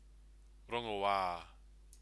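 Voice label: "rongoa", Maori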